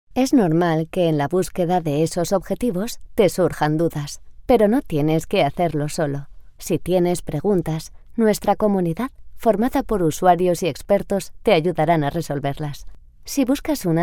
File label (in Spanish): locutora spanish voiceover